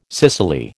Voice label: "cicely", American